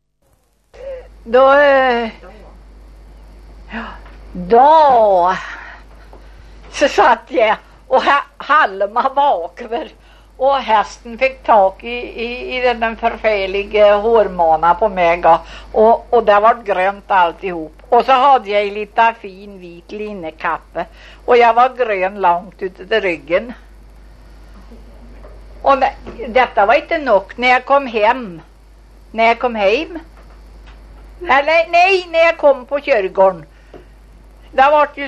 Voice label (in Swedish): Köla, dialekter; Svenska sagor; Folkminnen